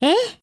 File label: Voice JP; Springfield